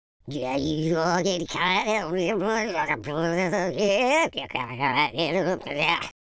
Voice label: angry, London Cabbie; speech